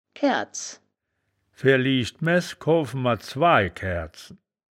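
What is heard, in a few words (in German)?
"kaeaerdz", wittlicher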